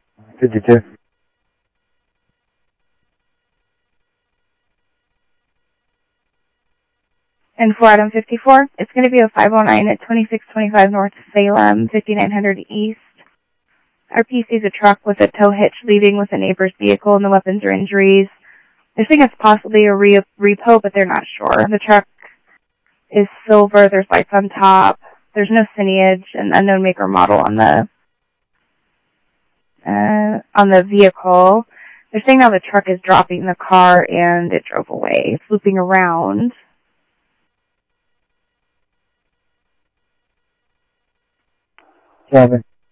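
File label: Mesa police department, scanner audio trunk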